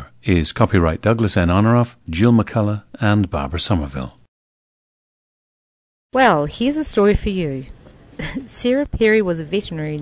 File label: New Zealand